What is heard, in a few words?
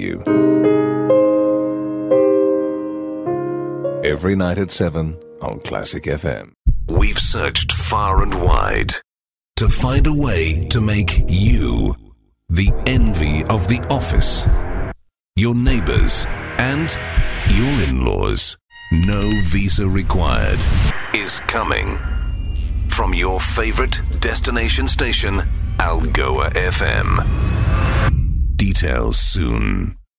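radio and tv imaging, Rich and Smooth Male Voice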